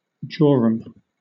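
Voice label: "jorum", British English; pronunciations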